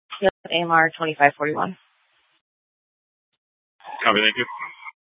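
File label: Gilbert, Fire Department, scanner; Mesa - Gilbert - AJ FD Departments; C4 Gilbert, QC, Superstition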